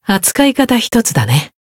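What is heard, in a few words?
Voice growth, Japanese; clarte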